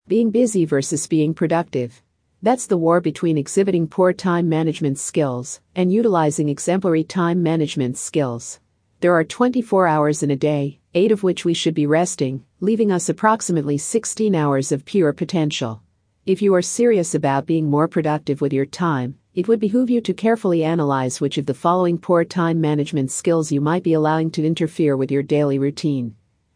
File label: Time Management Female Audio